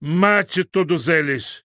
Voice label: orc male charge; Mate todos eles!

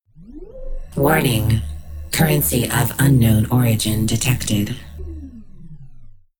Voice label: female normal personality; tip currency of unknown origin

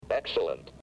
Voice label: robot excellent

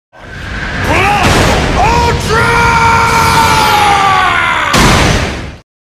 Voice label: plus ultra punch sound effect english version; all might; plus ultra allmayt

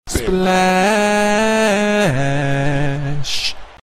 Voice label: autotune splash; chris smoove

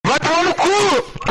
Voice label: rage

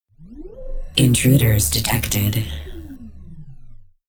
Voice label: intruders detected female normal personality

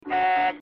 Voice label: robotic voice

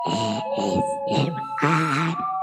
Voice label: asmr; subaru oozora

